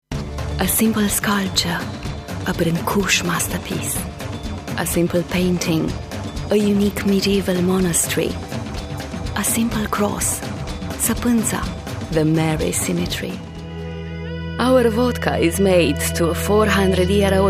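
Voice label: Romanian, English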